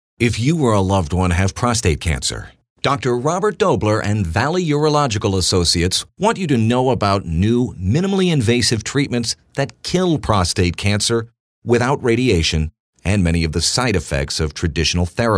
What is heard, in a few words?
radiospot